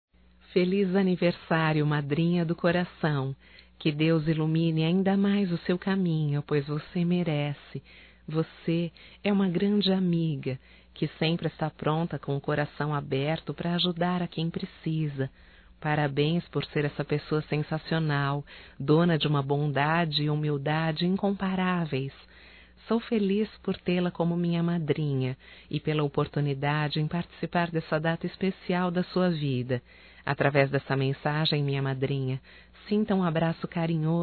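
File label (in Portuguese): female, Telemensagens Aniversarios; ANIV MADRINHA(F)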